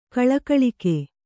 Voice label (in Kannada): "ಗೊಳಗೊಳಿಕೆ", Kannada